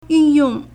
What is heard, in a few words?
"yun4yong4", Chinese